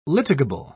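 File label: "litigable", British English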